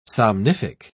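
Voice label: "somnific", British English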